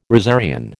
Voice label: "rosarian", American